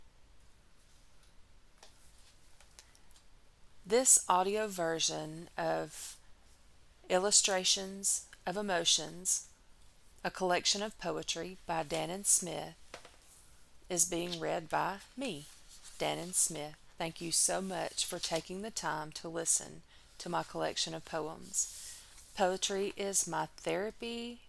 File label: author narrator intro